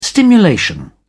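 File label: "stimulation", British English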